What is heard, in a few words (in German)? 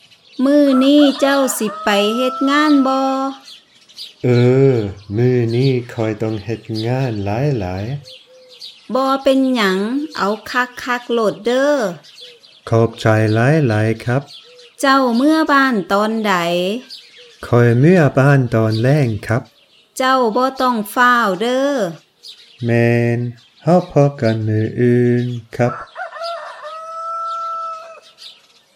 Isaan Konversation mit Hintergrund; iisaan